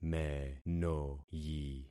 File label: "menoyi", concat syllables; Affixing experiment